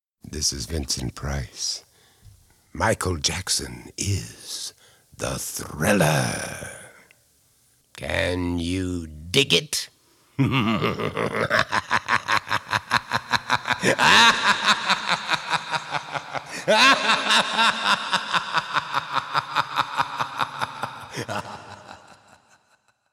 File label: Voice Over Session